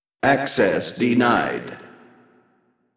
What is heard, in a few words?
Announcer Access Denied male echo